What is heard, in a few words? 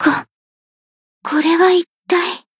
zodiac photo jp; tsukiha